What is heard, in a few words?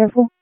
Police Northeast District Tac; Raleigh; wake